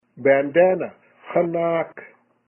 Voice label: Bandanna Haanaak Or Hanaak Bandanna Worn Around The Neck, Kumeyaay